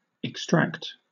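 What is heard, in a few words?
"extract", British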